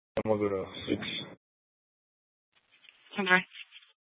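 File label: police dispatch radio, scanner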